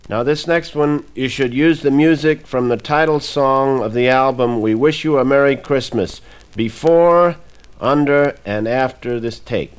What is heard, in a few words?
Holiday Greetings Voice Track